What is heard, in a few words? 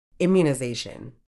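"immunisation", British English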